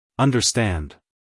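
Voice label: "understand", American English, male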